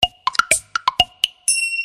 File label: Yummmmmmmmy